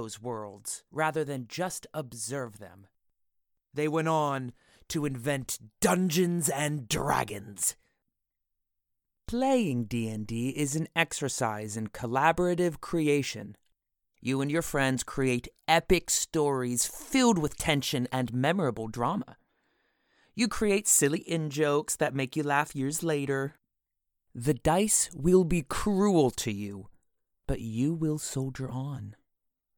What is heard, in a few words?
Home Studio Read